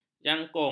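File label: "jangkung", Malay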